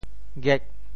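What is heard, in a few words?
"gek8", Teochew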